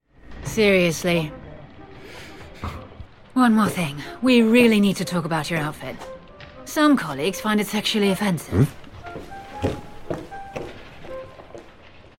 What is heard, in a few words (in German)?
Synchron englisch; voice